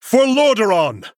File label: Male Human Start